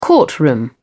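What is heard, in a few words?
"courtroom", British English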